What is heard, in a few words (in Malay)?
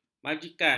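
"majikan", Malay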